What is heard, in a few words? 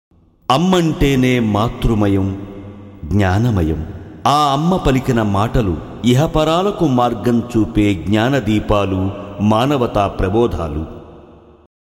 Commentary